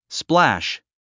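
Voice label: "splash", English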